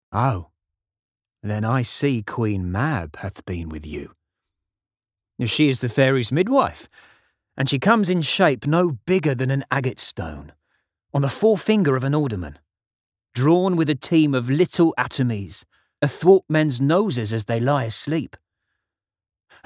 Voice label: Gruff London

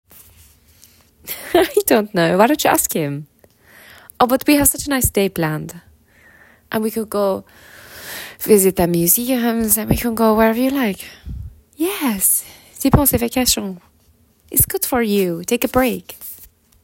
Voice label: French

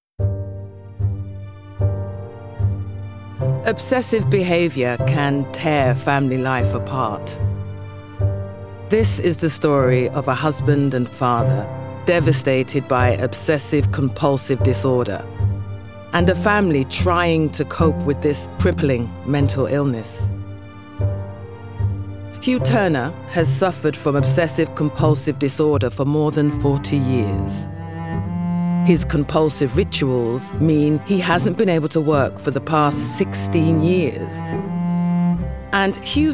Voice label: Documentary

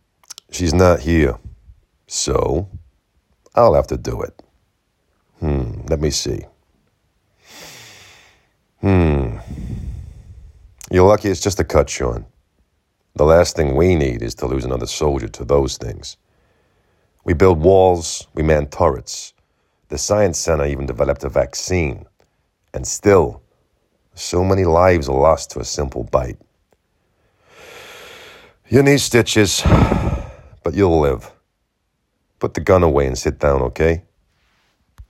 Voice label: Standard US

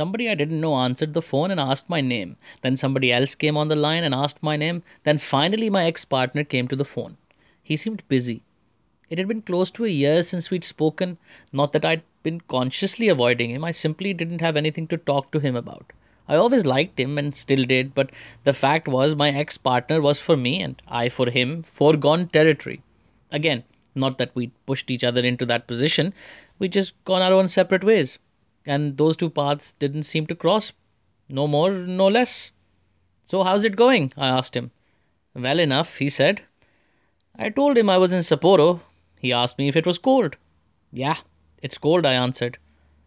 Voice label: Indian Accent, South Asian accent

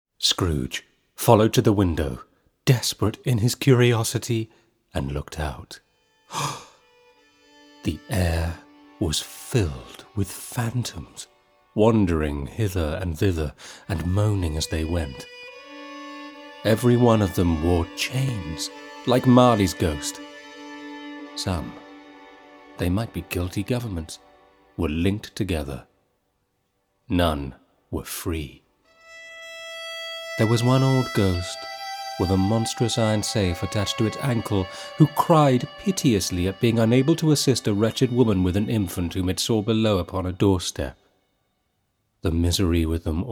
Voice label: Christmas Carol reading, Narration; BBC

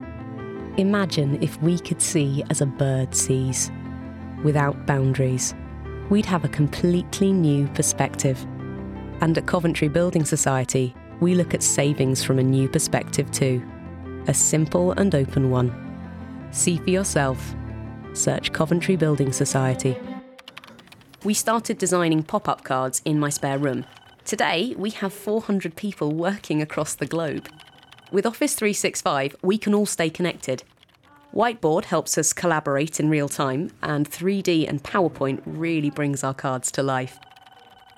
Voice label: Commercial Voice

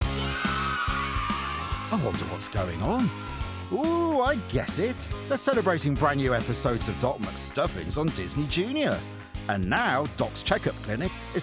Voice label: DOC COMMERCIAL VO; DOC MC STUFFINS

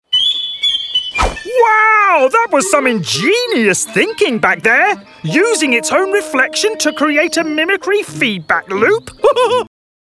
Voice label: Wow Man, Exciting, Enthusiastic, Young; Characters, Fun, Playful; Characters & Animation

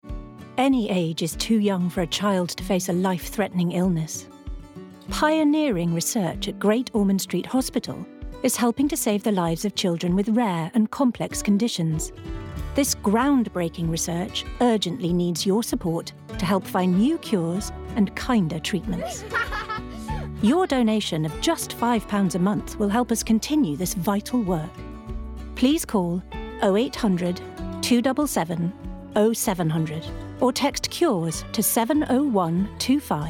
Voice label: Compassionate reassuring sympathetic; Great Ormond Street

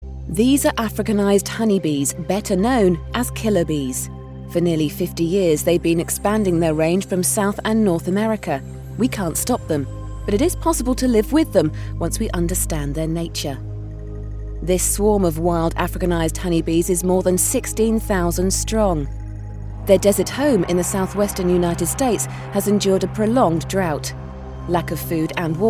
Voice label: Narrative Doc

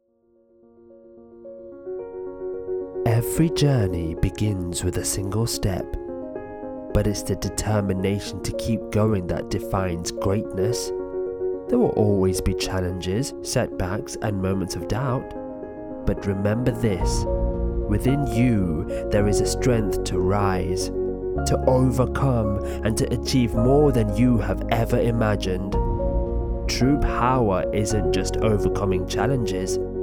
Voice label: Narrative

